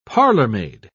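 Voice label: "parlormaid", British English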